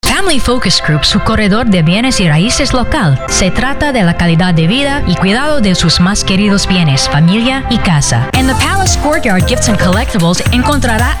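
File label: Spanish Commercial, voiceovers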